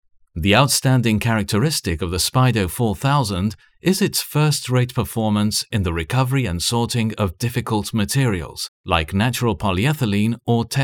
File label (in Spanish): EN masculino corporativo